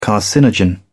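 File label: "carcinogen", British English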